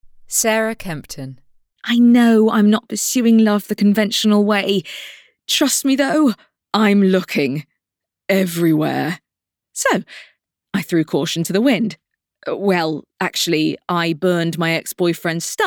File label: Audiobook